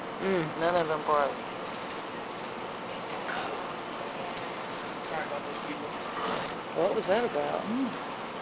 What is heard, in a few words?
"Need to Go" sound; EVP, or electronic voice phenomena, are said to be the disembodied voices from spirits